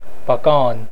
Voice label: Cajun French Pacane